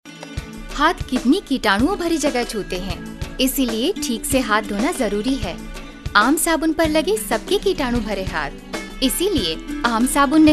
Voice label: hindi, female voice